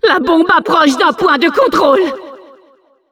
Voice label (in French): Announcer cart attacker warning, fr